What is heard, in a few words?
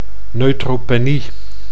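"neutropenie", Dutch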